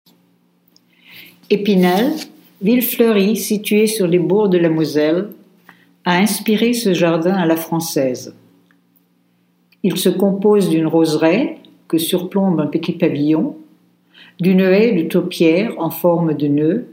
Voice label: french; garden